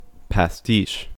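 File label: "pastiche", US English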